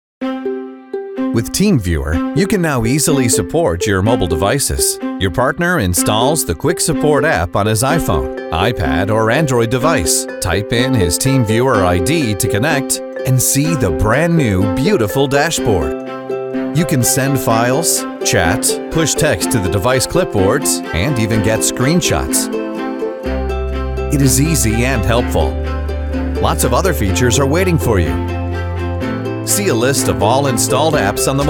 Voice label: 外籍男, 解说, Narration; TeamViewer

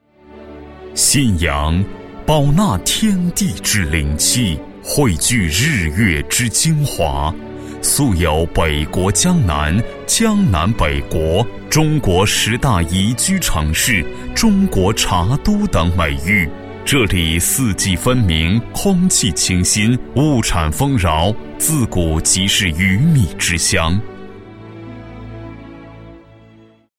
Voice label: 男3-广告, 国语男声配音; 龙潭私家茶

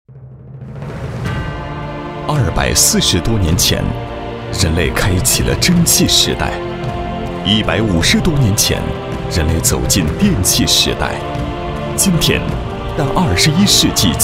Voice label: 男 十年宣传片文案, 国语男声配音; 美科; 今视讯

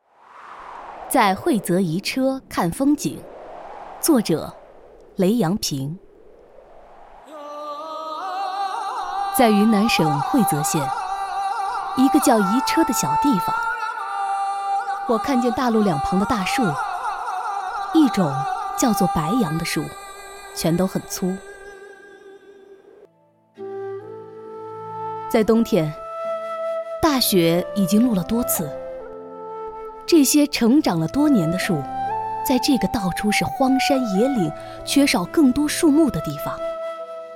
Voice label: 女 诗歌; 在会泽迤车看风景

